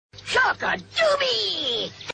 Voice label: creepy humpy eghhhg; kinder surprise